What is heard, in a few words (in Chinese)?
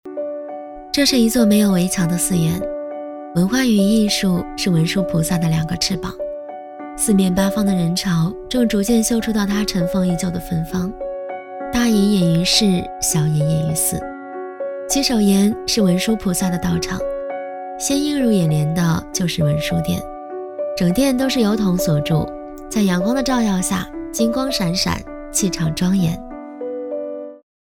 女, 自媒体旅行, 文殊殿; 甜美亲和; 甜美亲切